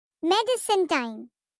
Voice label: Medicine Time Baby Voice